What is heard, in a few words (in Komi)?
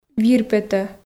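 "вир петӧ", Komi, female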